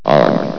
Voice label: "armed", vox

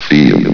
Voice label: "field", vox

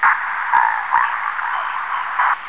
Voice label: grunt idle

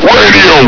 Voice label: "radio!", hgrunt

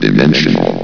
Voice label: "dimensional", vox

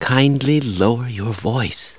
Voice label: lower voice, scientist

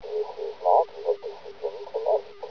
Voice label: mumble

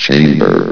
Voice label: "chamber", vox

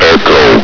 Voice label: "echo", hgrunt

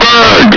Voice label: "bag!", hgrunt

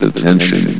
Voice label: "attention", vox

